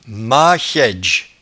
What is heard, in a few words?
"machaidge", patois jura